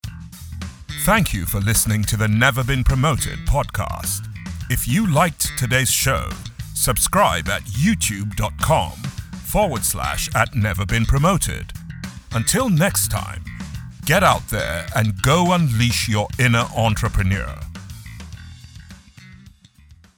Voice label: podcast outro; male voice actor; Never Been Promoted